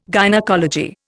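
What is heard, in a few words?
"gynaecology", Indian English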